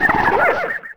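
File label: goblin move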